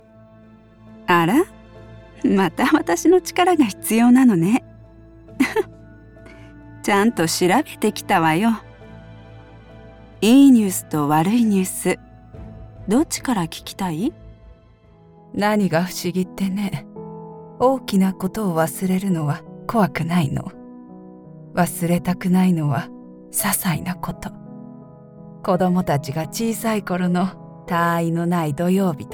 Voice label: Character vo mix, Voice Over